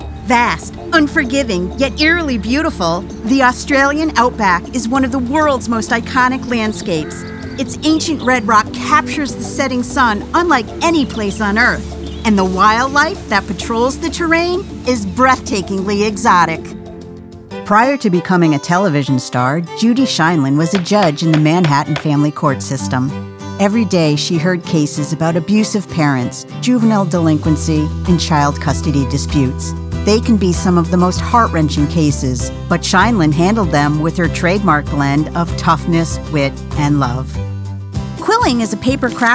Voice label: narration